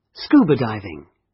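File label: "scuba diving", British English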